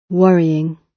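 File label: "worrying", British English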